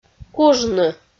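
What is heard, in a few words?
"кужны", Komi, female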